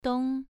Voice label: "dong2", pronunciation